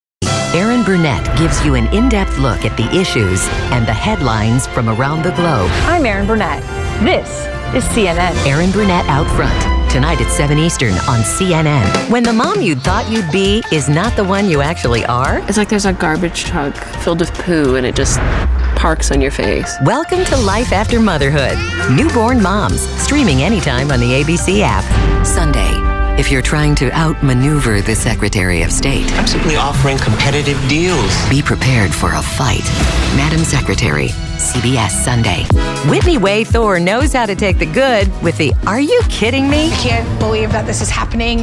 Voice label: Promo